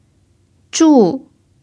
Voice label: "zhu4", Mandarin pinyin

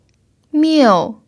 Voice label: "miu4", Mandarin pinyin

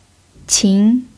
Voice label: "qin2", mandarin pinyin

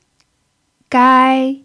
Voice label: "gai1", Mandarin pinyin

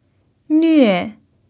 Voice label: "nve4", Mandarin pinyin

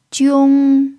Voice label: "jiong1", Mandarin pinyin

